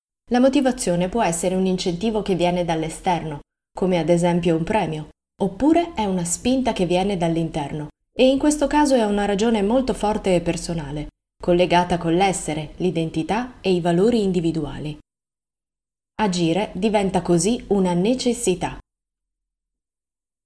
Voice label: non fiction, narratori